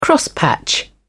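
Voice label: "crosspatch", British English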